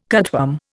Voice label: "cutworm", Indian